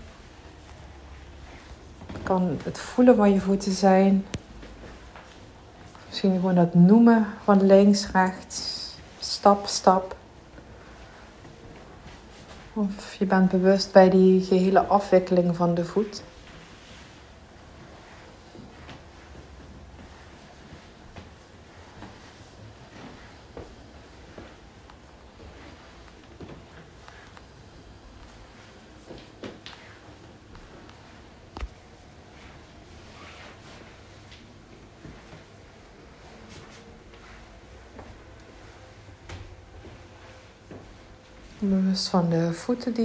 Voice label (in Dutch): Loopmeditatie 10 min; mindfulness